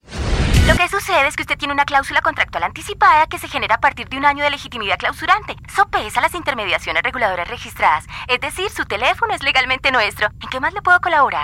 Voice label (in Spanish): Secretaria Joven Call center